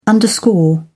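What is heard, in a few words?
"underscore", English, British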